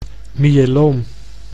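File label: "myeloom", Dutch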